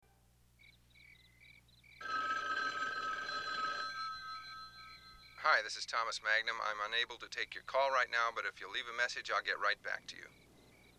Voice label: phone message